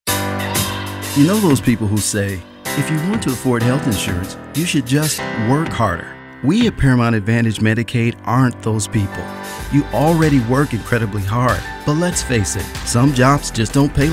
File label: guy next door